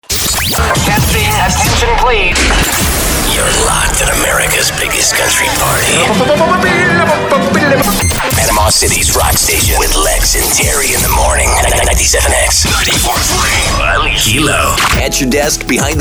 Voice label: Voiceover, men